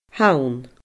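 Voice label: "Hann", Scottish Gaelic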